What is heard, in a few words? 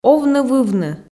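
"овны-вывны", Komi, female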